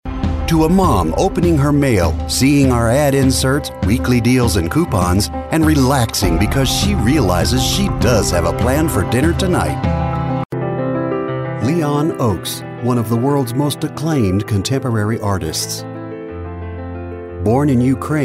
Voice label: english male voices american accent

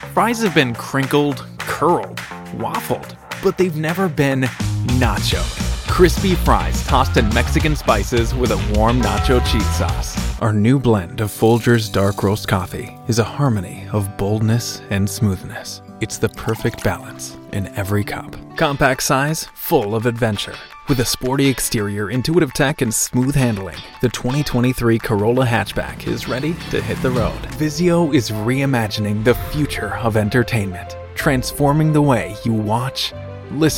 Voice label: commercial, english male voices american accent

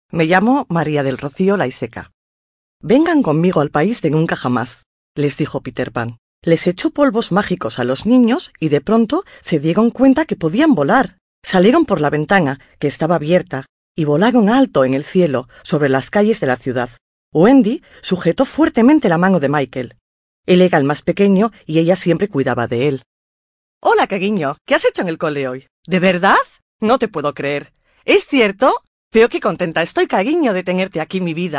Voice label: spanish female voices

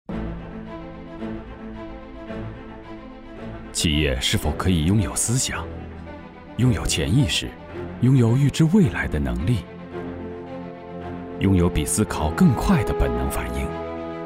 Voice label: chinese male voices

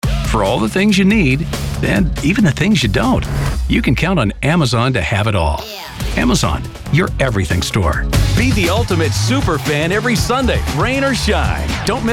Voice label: english male voices american accent

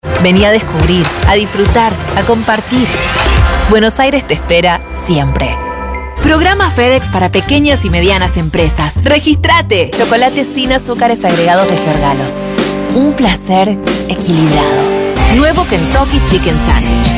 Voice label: ispanyolca, spanish female voices

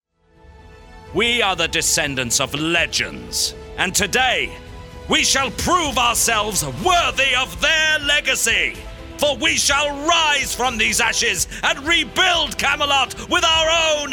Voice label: gaming, game dubbing male voices british accent

